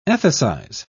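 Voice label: "ethicize", British English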